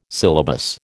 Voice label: "syllabus", American

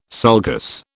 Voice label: "sulcus", British

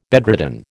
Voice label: "bedridden", American

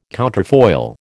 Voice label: "counterfoil", American

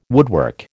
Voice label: "woodwork", American

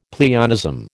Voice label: "pleonasm", American

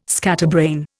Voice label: "scatterbrain", Indian

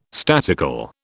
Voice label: "statical", British

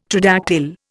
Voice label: "tridactyl", Indian

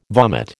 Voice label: "vomit", American

